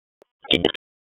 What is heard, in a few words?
Police Tac, p25; Raleigh; Wake